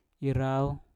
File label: "irau", Mpur; burung kakatua